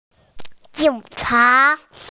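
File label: "jing cha" Chinese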